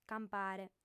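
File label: "campare", female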